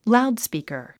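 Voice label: "loudspeaker", 英単語 発音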